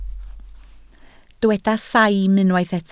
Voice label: "saim", Welsh